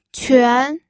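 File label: "quan", Mandarin Chinese, second tone; pinyin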